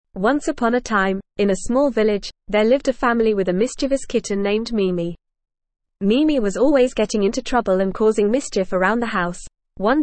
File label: ESL Short Stories for Kids NORMAL reading; The Mischievous Kitten